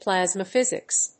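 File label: "Plasma Physics", English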